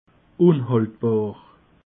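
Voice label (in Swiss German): "unhaltbar", Alsatian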